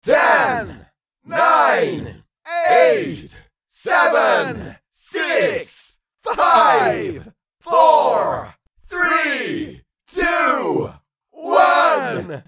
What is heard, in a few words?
Male crowd countdown